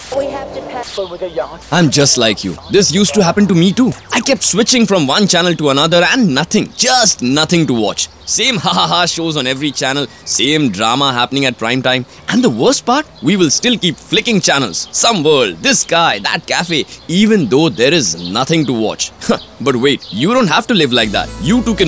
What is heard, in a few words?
Commercial